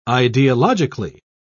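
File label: "ideologically", English, British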